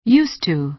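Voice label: "used to", American English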